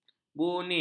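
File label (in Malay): "buni", Malay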